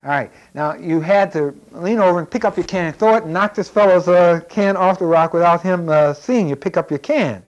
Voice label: Conversation with 41 year old black male Detroit Michigan; american english dialect